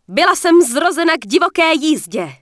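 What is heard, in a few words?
Human Female Pissed, Czech; wowpreklad; czwow; HumanVocalFemale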